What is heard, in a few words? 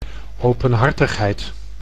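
"openhartigheid", Dutch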